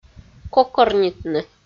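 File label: "кокорнитны", Komi, female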